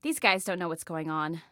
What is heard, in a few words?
Enemy Disarray, Female; Female 02